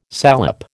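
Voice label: "salep", American English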